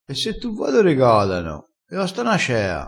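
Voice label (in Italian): "costa na cea", dialettale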